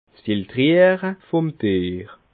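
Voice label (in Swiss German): "filtriere biere", Alsatian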